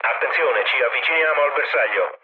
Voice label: near waypoint mood low, speech it; War Thunder

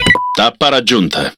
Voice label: Speech Autopilot Checkpoint, IT; World Of Warships

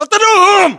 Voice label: order attack infantry, battlechatter, english

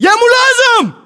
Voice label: rank lieutenant, localized english battlechatter

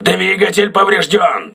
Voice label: engine damaged, crew ships russian

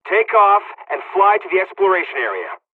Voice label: dialogs serbian; War Thunder

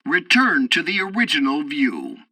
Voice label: tutorial fighter ground fight, english

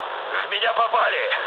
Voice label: aircraft damaged, mood low, speech ru

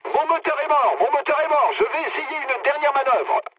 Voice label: damaged engine mood low, speech fr; War Thunder

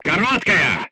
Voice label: voice message short stop, crew tanks russian

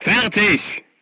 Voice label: voice message charged, crew tanks german

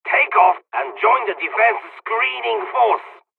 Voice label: takeoff, dialogs czech; midway jpn; War Thunder

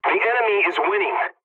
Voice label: pilot tickets lead start enemy, english; War Thunder

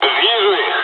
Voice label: dialogs russian; War Thunder

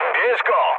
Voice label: attack position mood med, speech pol; War Thunder

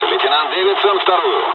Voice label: dialogs russian; War Thunder

